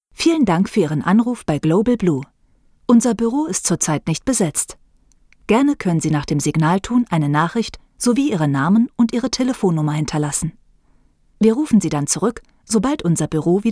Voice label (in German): telefonansagen